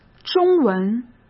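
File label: "中文", Mandarin Chinese; hsk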